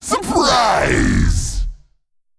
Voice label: Male Old God Creature Attack

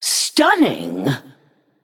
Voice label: Female Naga WOW

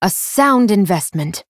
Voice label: Female Night Elf After Shop Upgrade

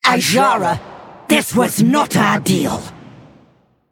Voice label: Female Old God Play

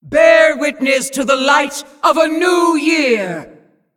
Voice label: Female Demon HAPPY NEW YEAR LUNAR

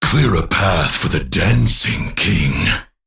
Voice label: Male Crystal Golem

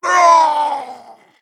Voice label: Male Satyr Death

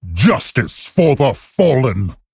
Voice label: Male Worgen Attack; Genn

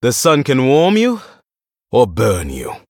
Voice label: Male Blood Elf Threaten

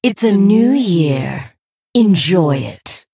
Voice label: Female Blood Elf HAPPY NEW YEAR LUNAR; Scarlet

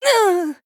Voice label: Female Night Elf Death

